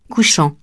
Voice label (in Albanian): "couchant", frengjisht